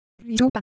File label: Japanese Motion, Ideo Phones